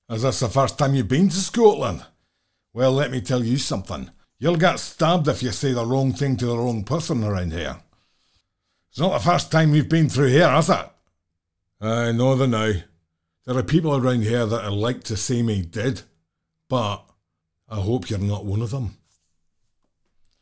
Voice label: scottish